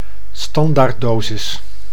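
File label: "standaarddosis", Dutch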